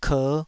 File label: "ker5", taiwanese syllables